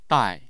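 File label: "dai", Chinese, fourth tone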